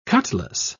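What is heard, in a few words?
"cutlas", British English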